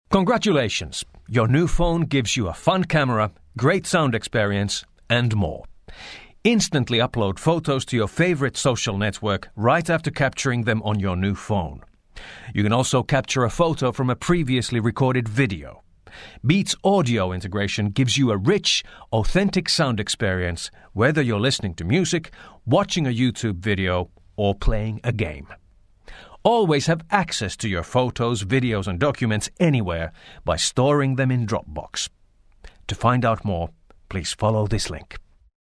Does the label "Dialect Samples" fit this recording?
No